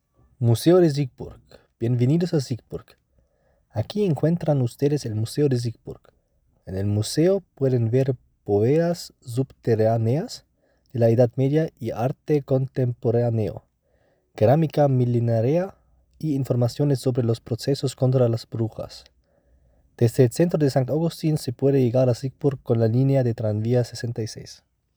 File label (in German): Spanisch; Siegburg Museum